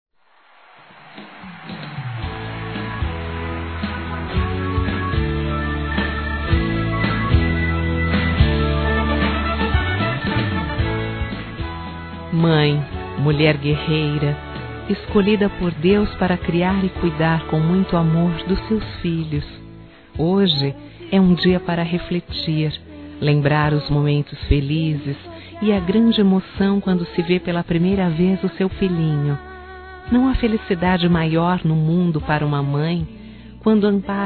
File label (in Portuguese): Geral Feminino, Telemensagens; Telemensagens 2017